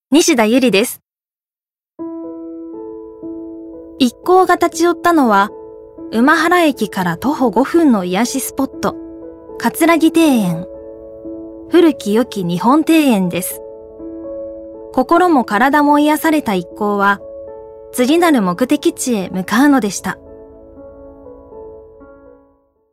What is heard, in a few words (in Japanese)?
ノーマル